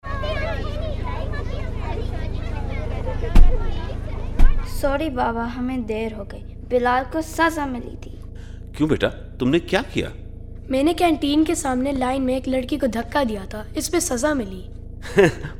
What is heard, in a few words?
Radio Urdu; CALD